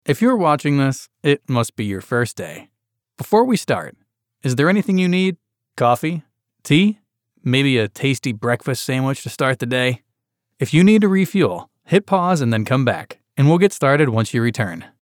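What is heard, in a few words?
US English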